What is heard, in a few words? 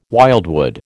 "wildwood", American